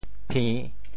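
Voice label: "phi~3", Teochew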